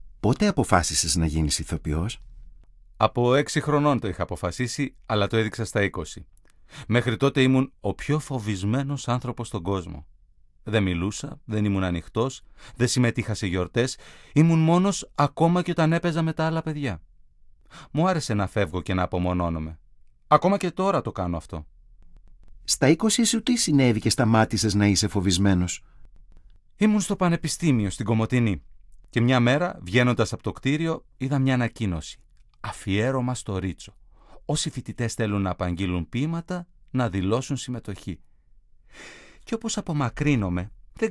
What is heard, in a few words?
dialog